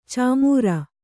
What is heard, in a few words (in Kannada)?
"ಛೀಮಾರಿ", Kannada